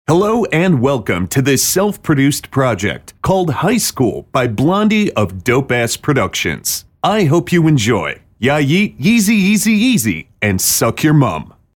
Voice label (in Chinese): 外籍男 专题类